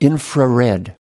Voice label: "infrared", us